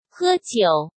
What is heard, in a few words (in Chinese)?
"喝酒", Chinese